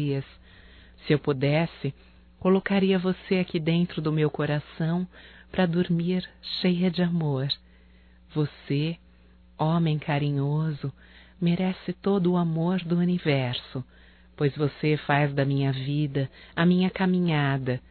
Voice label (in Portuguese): BOA NOITE ROMâNTICO, fem, Telemensagens; Bom dia, tarde e noite; Telemensagens 2017